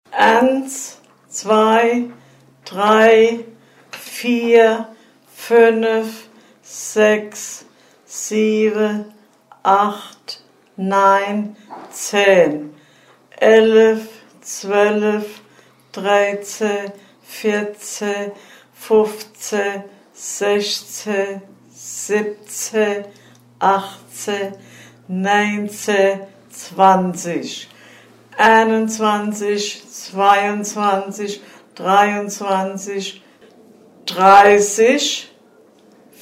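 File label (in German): zahlen, O-Ton Hunsrück; Benzweiler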